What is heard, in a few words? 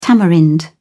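"tamarind", British English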